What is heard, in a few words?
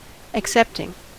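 "accepting", US English; pronunciation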